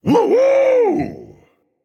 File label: Emote Dragon; smite; fafnir